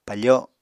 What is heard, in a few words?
"pafo", Apatani